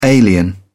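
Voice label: "alien", British English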